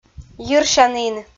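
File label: "йирсянін", Komi, female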